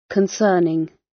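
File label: "concerning", British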